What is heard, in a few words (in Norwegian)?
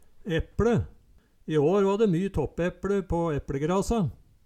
"epLe", Numedalsmål